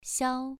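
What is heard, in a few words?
"xiao" Mandarin Chinese, second tone, pronunciation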